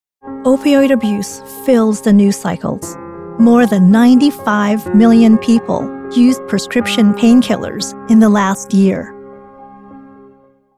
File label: PSA; Opiod